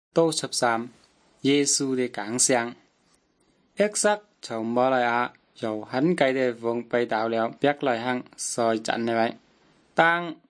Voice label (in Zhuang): good news zhuang nanning fushu